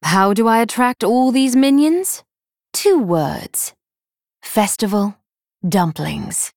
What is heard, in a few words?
Female Night Elf Flavor